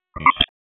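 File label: Raleigh Police Northeast District Tactical, p25; wake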